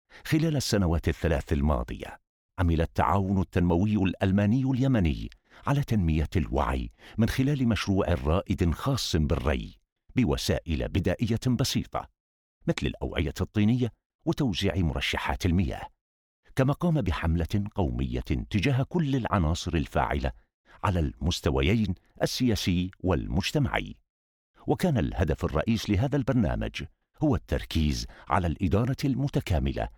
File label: male arabic, ivr voice over; ivr voice over supplying